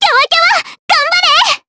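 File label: Vox Skill, voices, Japanese; kingsraid; voices heroes jp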